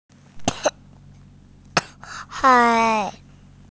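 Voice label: "hai4", Mandarin Chinese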